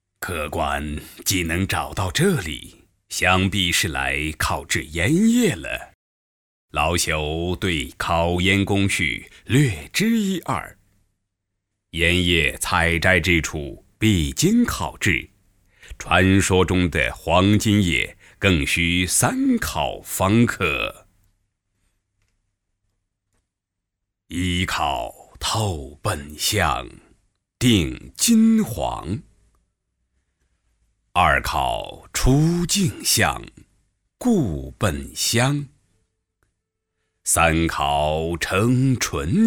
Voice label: 动画, 老人, 老人角色; 酒仙

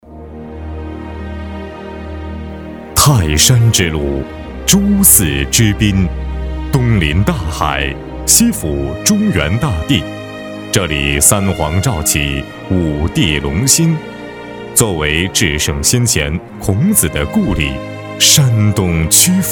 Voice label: 男国 专题 学校 大气; 鲁南新起点学校

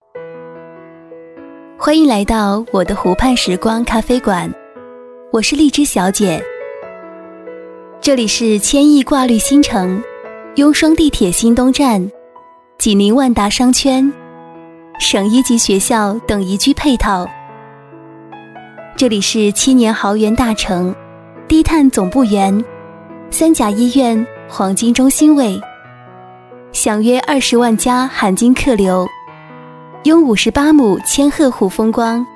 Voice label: 其他 旁白 咖啡馆; 湖畔时光咖啡馆

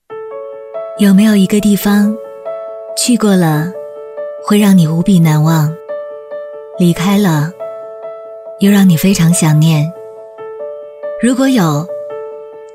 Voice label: 宣传片 旅游 旅游宣传 时尚; 满洲里; 满洲里旅游宣传

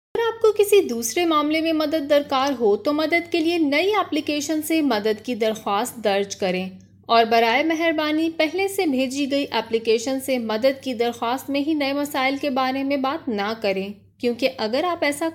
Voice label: 乌尔都语女 轻快; 外语

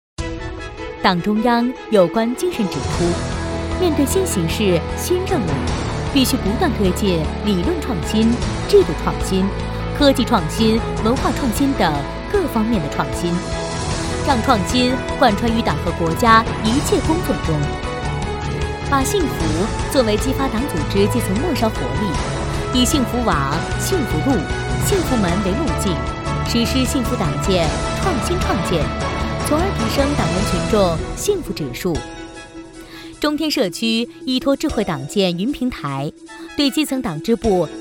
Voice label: 专题 汇报 稳重; 中天

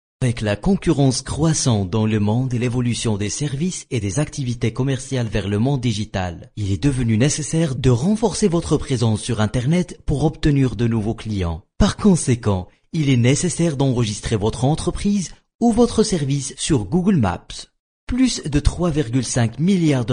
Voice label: 阿拉伯语男 外语 小语种

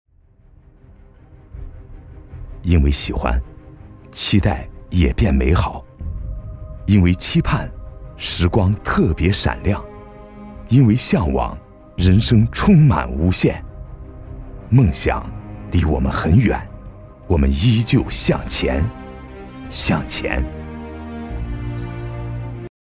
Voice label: 专题 企业 磁性, 男国; 南通供电

